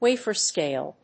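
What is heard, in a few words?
"wafer scale", English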